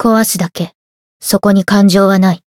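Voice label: Voice win, Japanese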